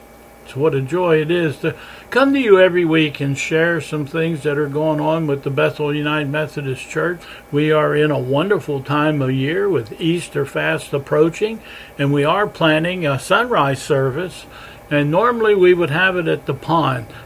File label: Welcome and Announcements